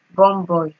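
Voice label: "bomboy", Nigerian Pidgin, female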